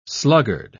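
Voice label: "sluggard", English, British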